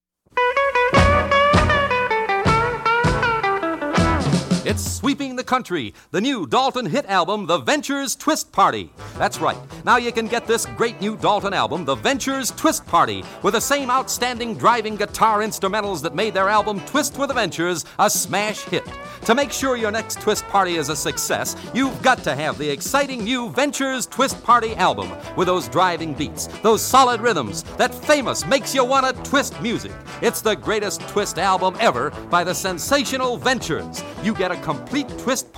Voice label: Radio Commercials